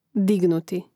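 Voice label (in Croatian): "dignuti", Hrvatski; mreznik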